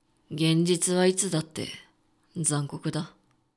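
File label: male; 現実はいつだって、残酷だ。; 低音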